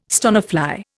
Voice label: "stonefly", Indian